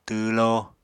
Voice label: "twwló", Apatani